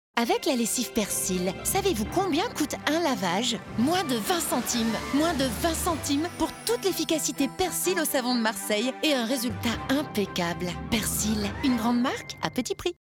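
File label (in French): menagere legere, comédienne voix off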